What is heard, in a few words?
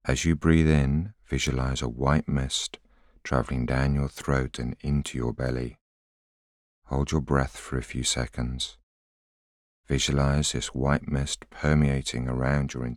voiceover